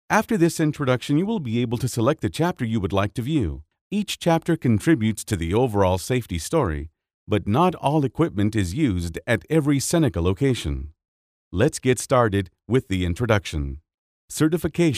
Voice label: E-Learning Sample